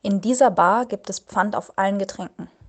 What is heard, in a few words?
"Pfand", german